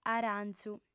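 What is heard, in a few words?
"arànzu", lingua sarda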